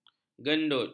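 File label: "gendut", Malay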